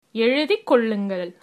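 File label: write, Tamil, female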